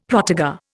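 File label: "protégé", Indian; Dictionary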